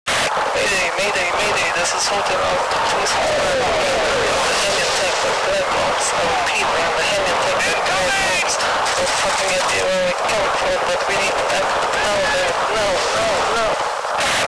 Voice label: recon, radio